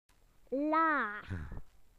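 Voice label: "low", British English; PictureDictionary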